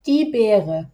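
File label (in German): "Beere", German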